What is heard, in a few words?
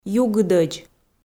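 "югыдӧдз", Komi, female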